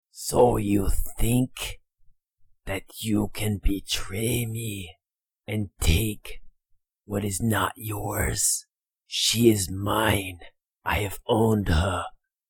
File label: Voice Demo Vampire